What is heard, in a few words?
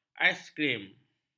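"aiskrim", Malay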